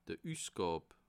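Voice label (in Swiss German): "usgab", Bas Rhin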